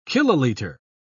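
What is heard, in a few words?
"kiloliter", British English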